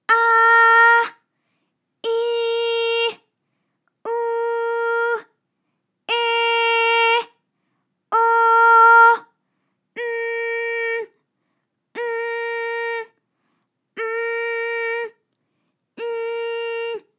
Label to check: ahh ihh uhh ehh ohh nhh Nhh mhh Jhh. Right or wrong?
Right